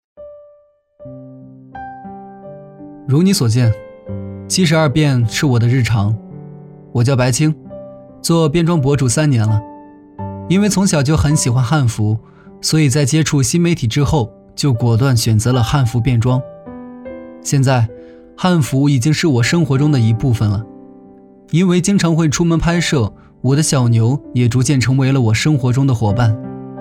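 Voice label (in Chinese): 自述素人沉稳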